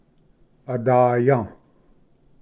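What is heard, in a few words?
"adaya", Cherokee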